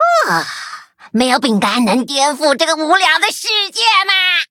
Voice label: loading, zh-hant; Cookie Run